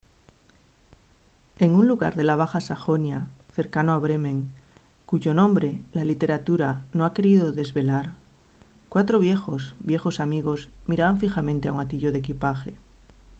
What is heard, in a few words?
Spanish; wissenschaftliche kurzgeschichten